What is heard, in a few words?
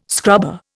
"scrubber", Indian